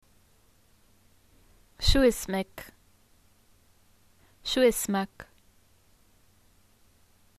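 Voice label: whats your name, Arabic, Jordan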